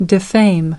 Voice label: "defame", us